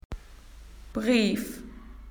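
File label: "letter", Australian English; PictureDictionary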